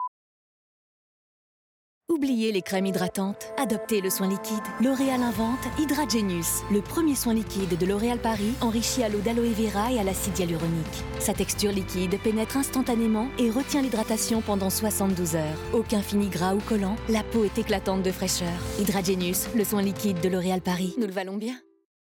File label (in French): Voix Officielle Pub; Barbara Palvin; LOREAL HYDRA GENIUS; voix francaise de legerie loreal paris